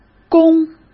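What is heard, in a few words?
"攻", Mandarin Chinese; chinese-flashcards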